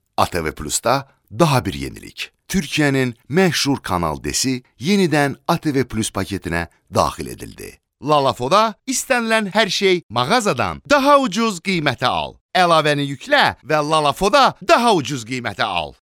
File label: Azerbaijan